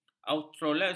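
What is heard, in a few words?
"australasia", Malay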